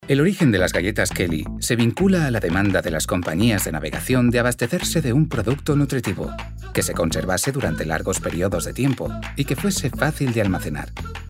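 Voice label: Spanish, male, e-learning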